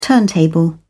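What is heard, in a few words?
"turntable", British English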